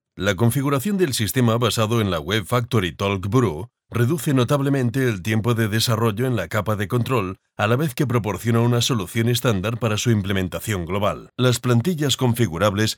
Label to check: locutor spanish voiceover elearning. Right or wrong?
Right